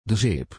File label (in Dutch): "zeep", de badkamer; the bathingroom; Douche